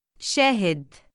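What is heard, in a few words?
"shaahid", Arabic, male; given name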